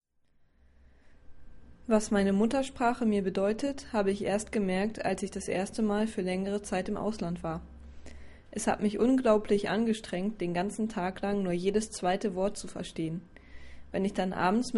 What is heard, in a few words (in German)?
deutsch